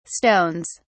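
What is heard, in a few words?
"stones", English, British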